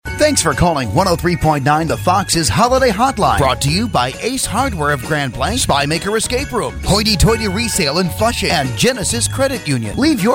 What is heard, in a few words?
HOLIDAY HOTLINE Greeting; FOX